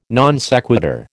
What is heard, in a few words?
"non sequitur", American